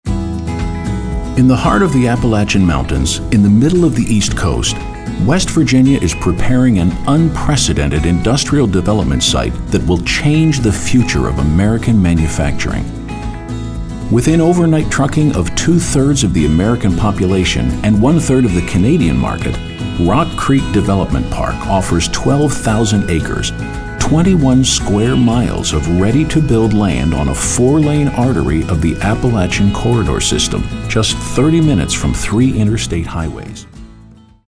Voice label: Informative